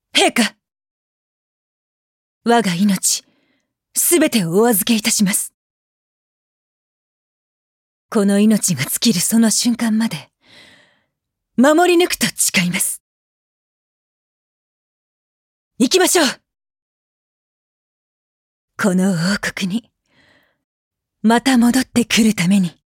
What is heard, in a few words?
female